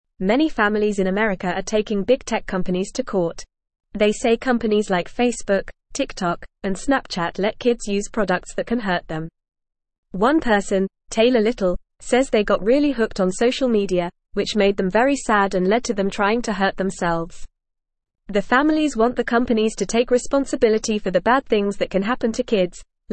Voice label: English Lower Intermediate FAST Reading